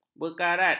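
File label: "berkarat", Malay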